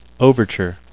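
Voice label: "overture", English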